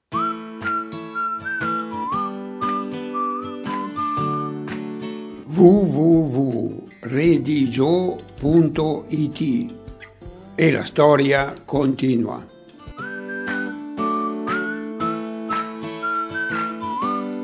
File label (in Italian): storiella dialetto